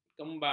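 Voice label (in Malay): "kembang", Malay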